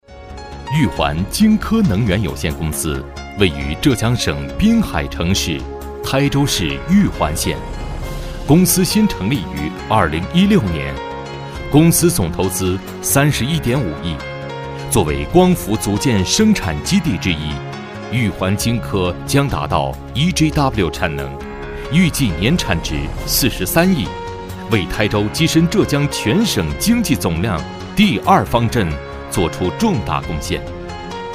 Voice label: 男 专题

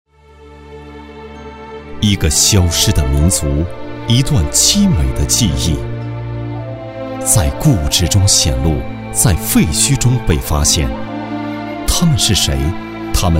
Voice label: 男 片花; 神秘的羊文化

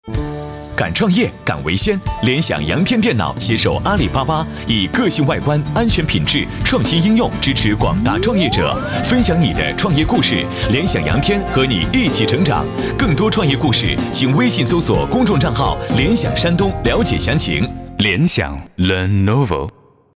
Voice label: 男 广告 活力; 联想电脑

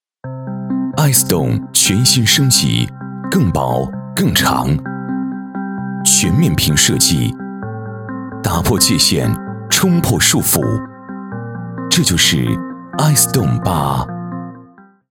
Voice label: 男 广告 科技; 爱思懂八

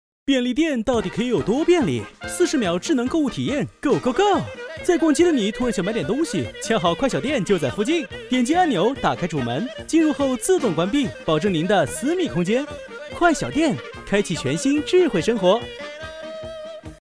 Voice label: 男 欢快

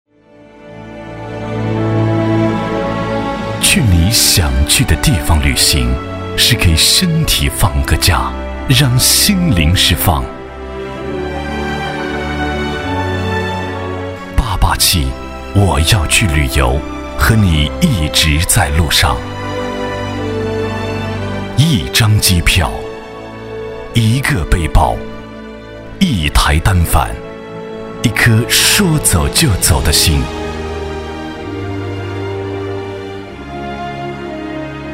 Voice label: 男 片花

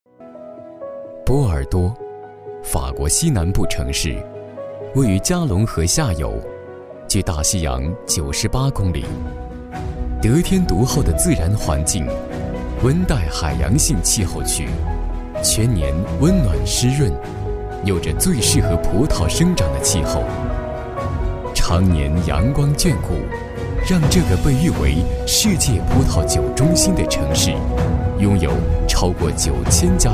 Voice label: 男, 专题; 波尔多城市

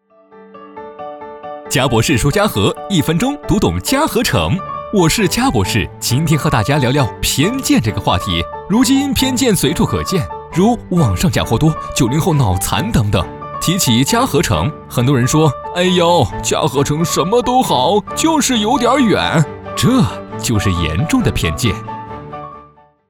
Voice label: 男; 飞碟说; 家博士

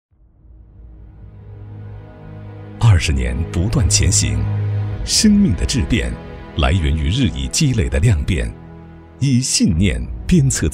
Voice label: 男 专题 品质科技感

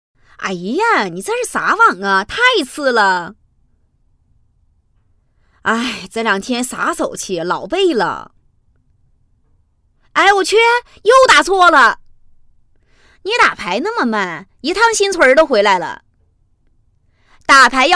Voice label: 女 模仿 东北话麻将

